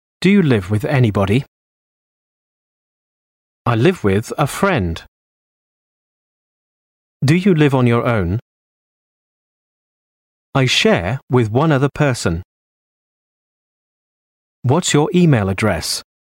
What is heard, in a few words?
English Sentences